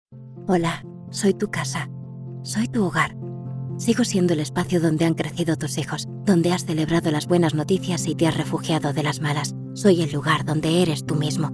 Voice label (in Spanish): TONO CONCILIADOR; IKEA